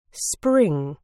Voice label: "spring", uk